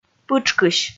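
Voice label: "пытшкысь", Komi, female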